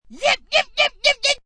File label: Yep Yep Yep, Spastic yelling